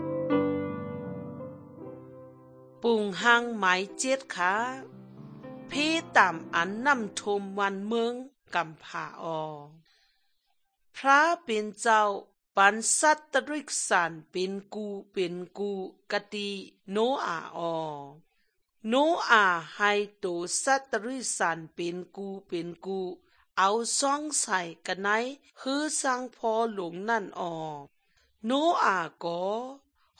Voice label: good news shan eastern, drama

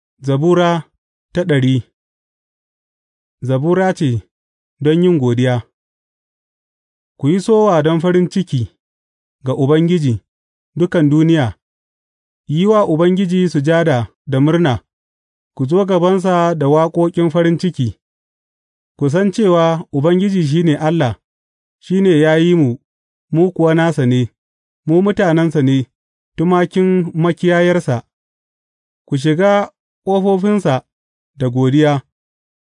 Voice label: hausa bible drama, psalms; hausa bsn nt drama

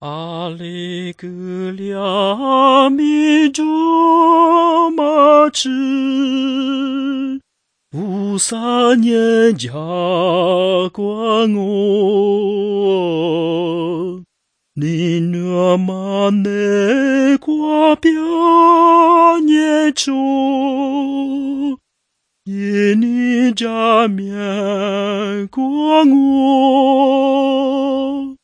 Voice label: words of life lisu southern

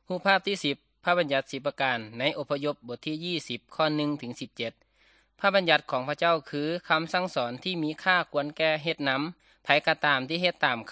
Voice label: good news thai northeastern

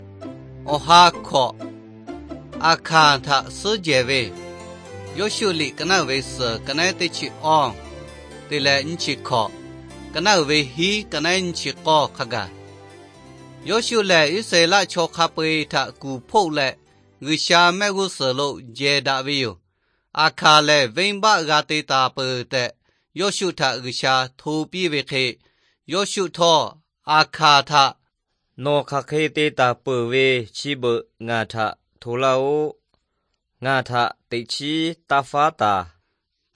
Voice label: victory through god lahu na, nt drama

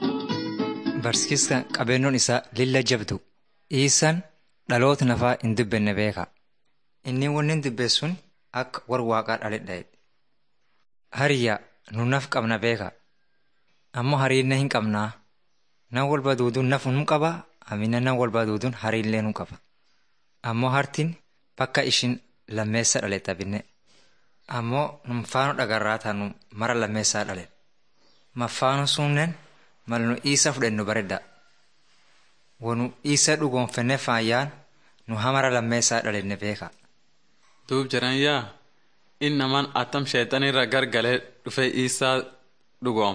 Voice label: teaching tape orma; bible lessons

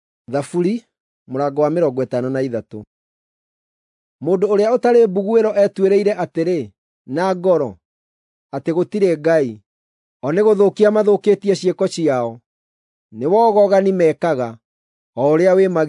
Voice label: psalms, gikuyu drama

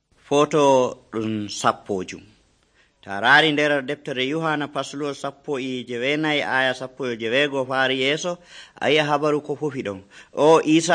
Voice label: fulfulde, drama; fulfulde adamawa abc nt drama

